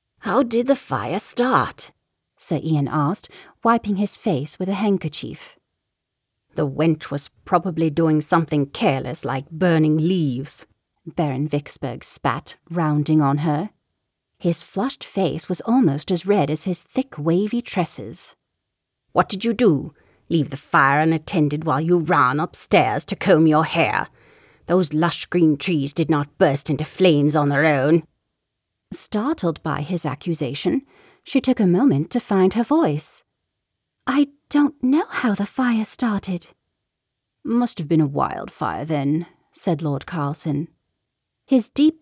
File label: British Accent Multi Character